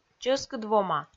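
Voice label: "чӧскыд вома", Komi, female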